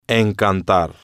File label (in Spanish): "encantar", Spanish